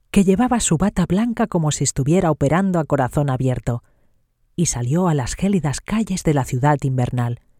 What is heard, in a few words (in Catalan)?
audiolibro, locutora